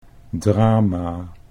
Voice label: "drama", Dutch